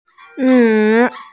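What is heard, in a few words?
"nv3", Mandarin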